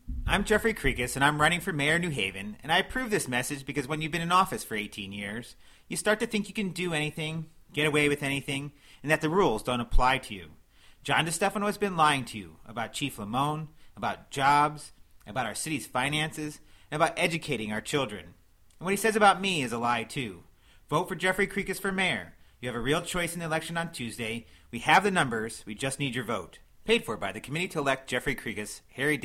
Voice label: campaign ad